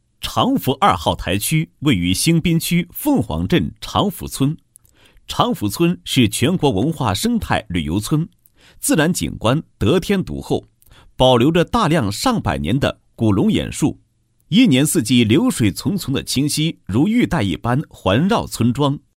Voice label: 男, 工程汇报; 南网优质工程汇报稿配音

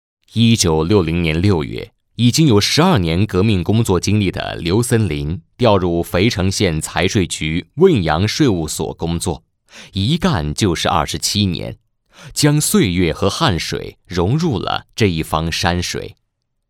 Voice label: 男 视频配音解说; 市局献礼国庆

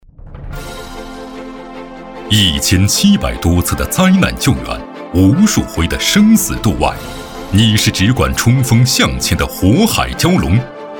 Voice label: 男 专题 军队 片 大气严肃 最美退役军人

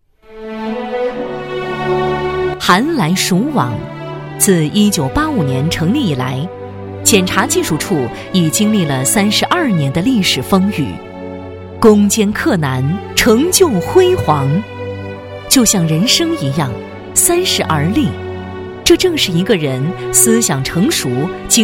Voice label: 女 专题 大气检察院

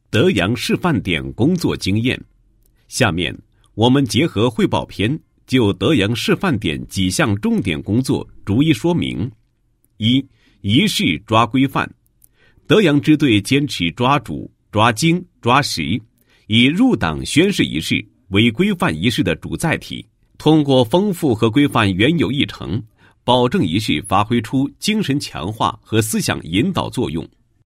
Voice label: 男 工作总结, 配音; 德阳示范点工作经验

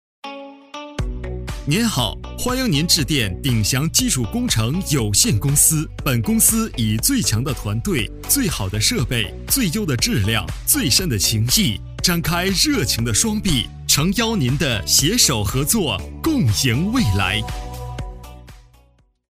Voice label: 男 彩铃; 工程公司

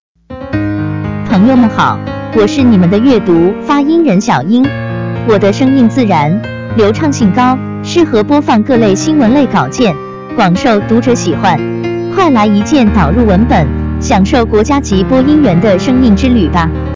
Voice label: 女 自我介绍

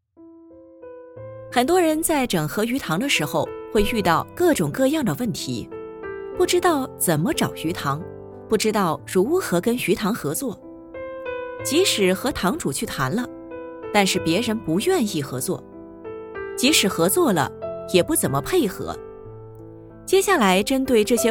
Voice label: 课件; 客流动力

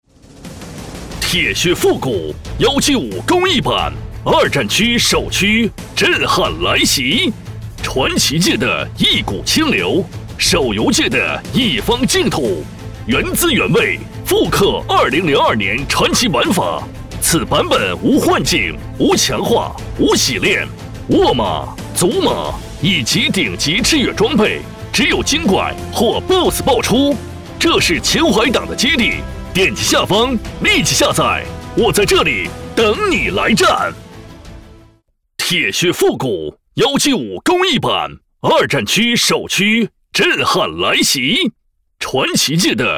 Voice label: 男 激情劲爆 游戏

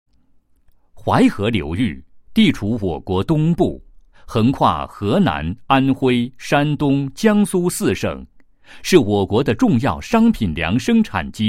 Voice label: 男 解说词; 水利部公益性行业科研专项经费项目解说词

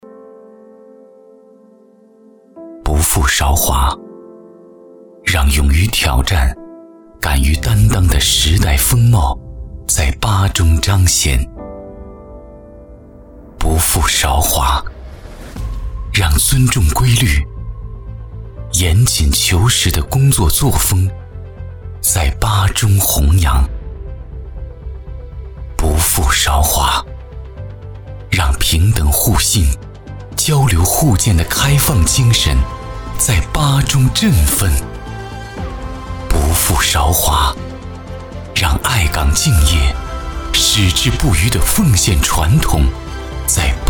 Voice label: 男 广告

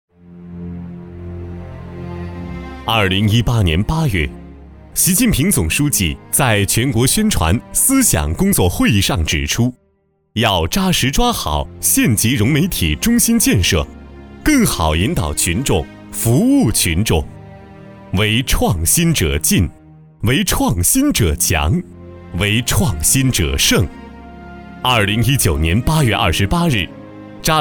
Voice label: 男 大气激情; 融媒体

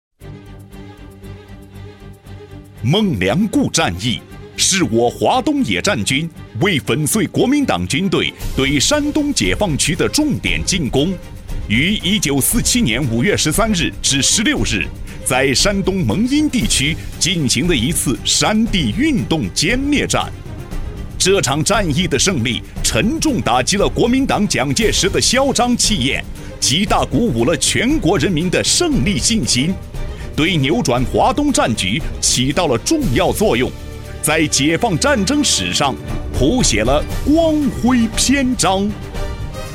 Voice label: 男-专题-激昂军事类

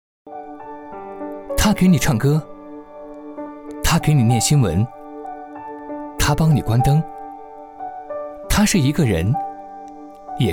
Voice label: 男 年轻科技感; 天猫精灵

